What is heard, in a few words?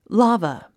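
"lava", English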